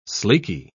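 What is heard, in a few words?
"sleeky", British English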